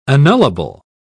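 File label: "annullable", British English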